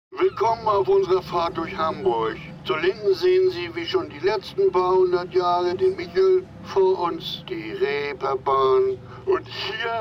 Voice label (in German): werbung szene; geo